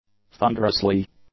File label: "thunderously", English